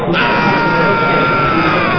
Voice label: distress, babble, sad